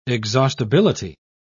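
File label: "exhaustibility", British English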